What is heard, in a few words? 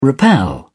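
"rappel", British English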